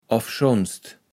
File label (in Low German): "offschonst", kaevels platt